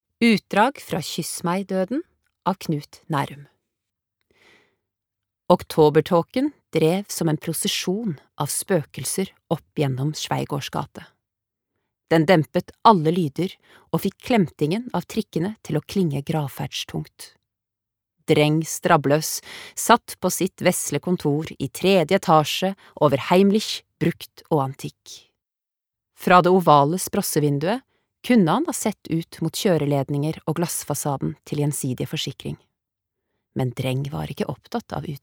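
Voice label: Norwegian lydbok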